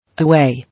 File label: "Away", English